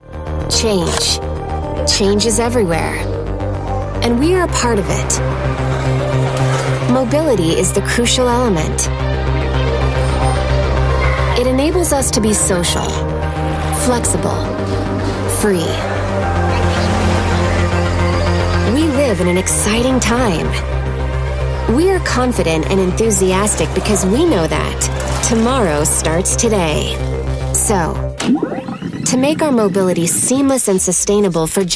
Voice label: HIP COOL MOTIVATIONAL; D'Ieteren